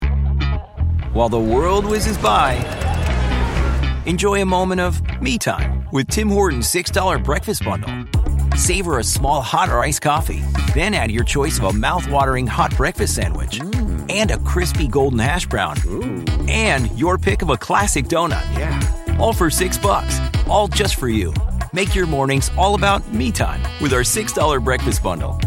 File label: dinka; sbs